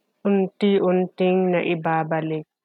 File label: Tagalog